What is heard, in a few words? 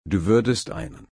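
"einen", German, slow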